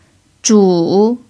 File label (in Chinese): "zhu3", f; chinese